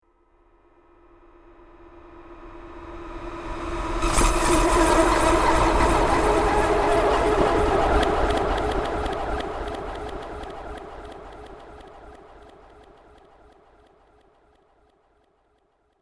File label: mangled time correction